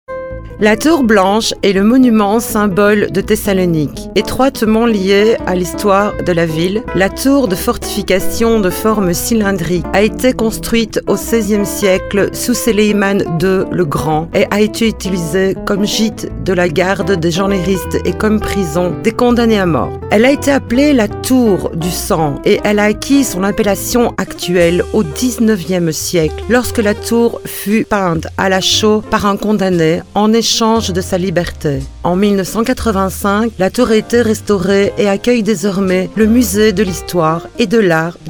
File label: french FEMALE; Audioart